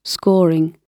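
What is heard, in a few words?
"scoring", British English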